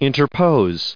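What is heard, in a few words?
"interposed", US English pronunciation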